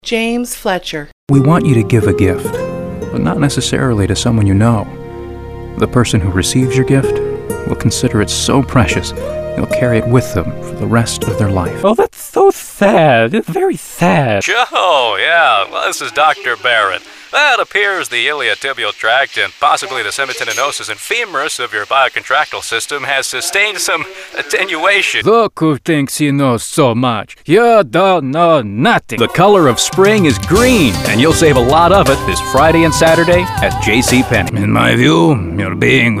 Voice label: male voice